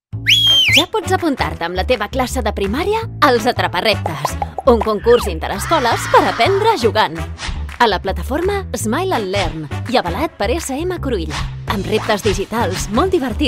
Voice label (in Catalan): CATALA Promo editorial infantil; Els Atrapa Reptes; Editorial Crulla